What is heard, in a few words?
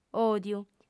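"òdiu", Sardinian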